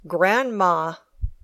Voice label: "grandma", English